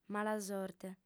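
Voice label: "malasorte", female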